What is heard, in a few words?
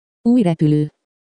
Új Repülő pronounciation